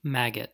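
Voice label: "maggot", US English; pronunciations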